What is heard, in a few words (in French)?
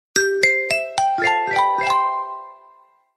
Sonnerie Sms Courte, Vous Avez Un Message